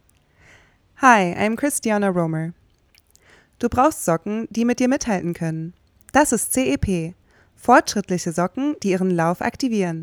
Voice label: German VO Commercial

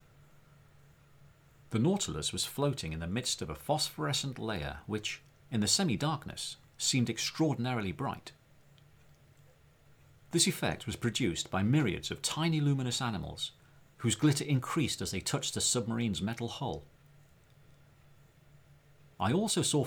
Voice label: English British Native

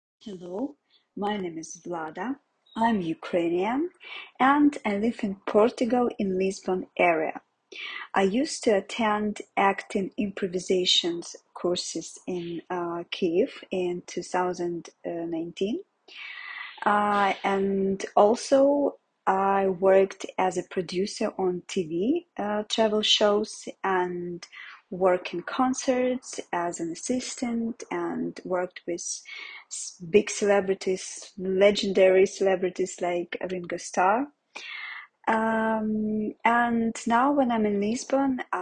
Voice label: presentation

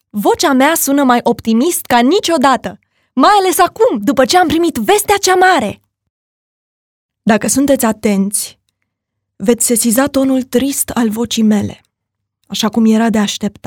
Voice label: Romanian

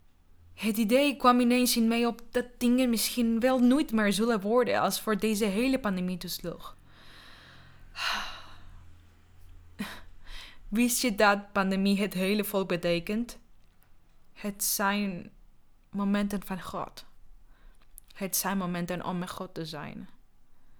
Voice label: Dutch

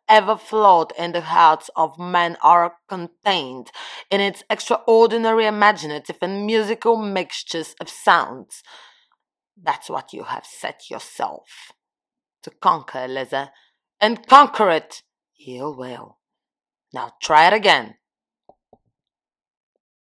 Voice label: English influenced by Brits

